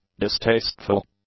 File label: "distasteful", English; adjectives